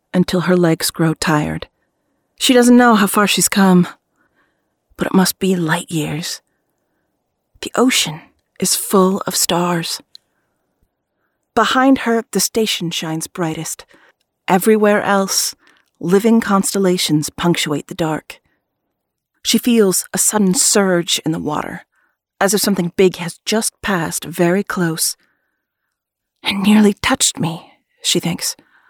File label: audiobook reel